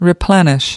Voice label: "replenish", us

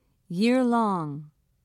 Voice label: "yearlong", us

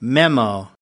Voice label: "memo", us

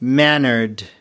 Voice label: "mannered", us

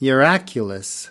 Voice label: "miraculous", us

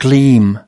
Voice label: "gleam", us

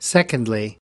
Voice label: "secondly", us

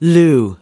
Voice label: "lieu", us

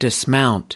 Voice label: dismount, us

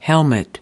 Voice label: "helmet", us

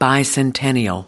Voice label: "bicentennial", us

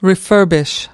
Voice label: "refurbish", us

